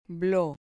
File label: "blo", Spanish